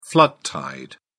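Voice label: "flood tide", British English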